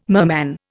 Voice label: "merman", Indian